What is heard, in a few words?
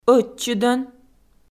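"ӧтчыдӧн", Komi, female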